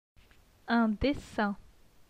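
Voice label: "Un dessin", French; phonetique; les voyelles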